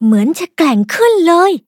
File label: levelup, th; cookie run